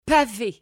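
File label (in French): "paver", French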